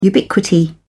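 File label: "ubiquity", British English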